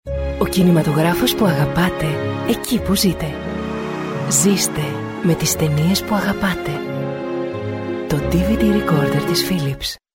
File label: greek commercial